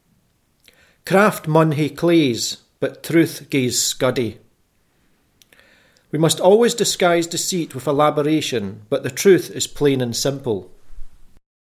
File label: "scuddie", Scots